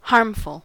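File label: "harmful", American English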